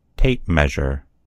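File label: "tape measure", American English; gcompris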